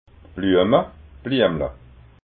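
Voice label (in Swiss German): "bluema", Alsatian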